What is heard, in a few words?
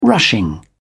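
"rushing", British English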